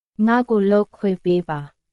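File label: Myanmar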